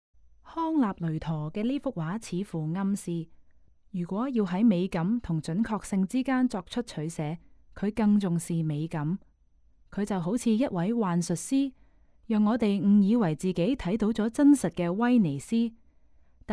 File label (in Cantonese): Canto; audio guide